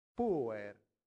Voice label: "puer", Latin; santillana

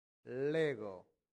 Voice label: "lego", latin; santillana

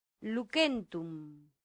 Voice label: "lucentum", latin; santillana

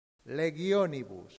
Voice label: "legionibus", latin; santillana

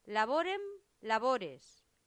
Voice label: "laborem labores", latin; santillana

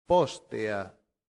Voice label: "postea", latin; santillana

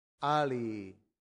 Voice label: "alii", Latin; santillana

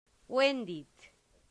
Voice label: "vendit", latin; santillana

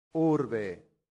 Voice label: "urbe", Latin; santillana

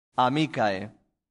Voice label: "amicae", Latin; santillana

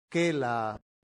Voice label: "cella", latin; santillana

